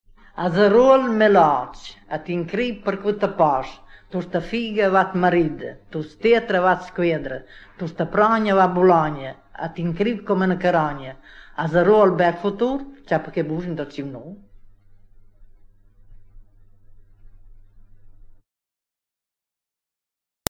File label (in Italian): indovinello, romagna